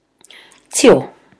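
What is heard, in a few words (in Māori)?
"tio", Māori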